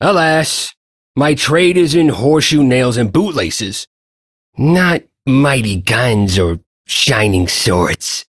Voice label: Ambient Shopkeeper, Dialog; Duviri

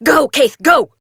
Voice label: Horse Sprint Adult; Duviri

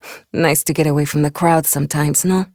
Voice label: Ambient Barks, en; Warframe; Mall Romance; Lettie

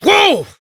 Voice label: Horse Stop Adult; Duviri

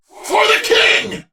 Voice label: Attack, en; Warframe; Battle; Dax; DaxKnight

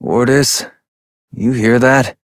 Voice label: Adult Op, en; New War; Ascend Tower

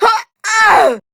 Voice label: Death, Grineer Queens Guards, Battle Barks, en; Warframe

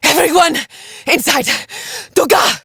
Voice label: Villagers Fem en

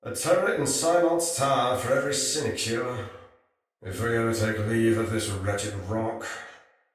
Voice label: Patrol Spoken, Soldier Male; Sinecure Soldier Male A

